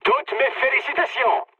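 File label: French player killed enemy mood high; War Thunder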